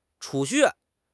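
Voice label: "储蓄", Chinese; HSK6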